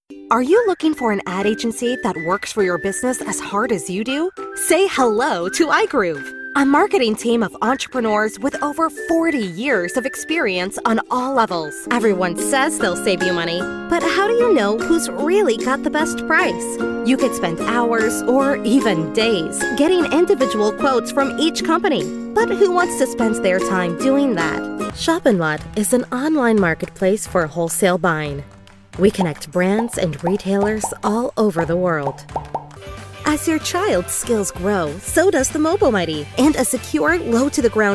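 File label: female, American English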